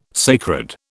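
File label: "sacred", British English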